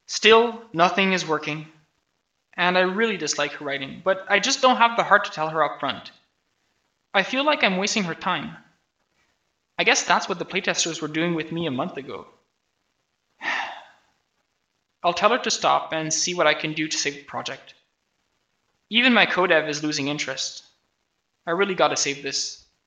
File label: voice, narration